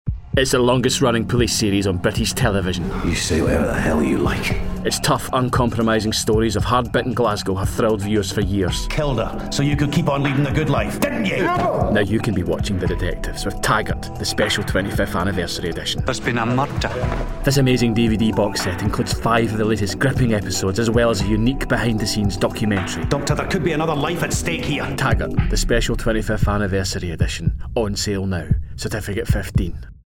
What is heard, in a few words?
Commercial; Taggart